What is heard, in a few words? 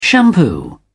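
"shampoo", British English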